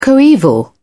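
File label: "coeval", English, British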